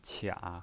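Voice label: "qia" third tone, pinyin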